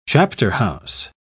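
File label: "chapterhouse", British English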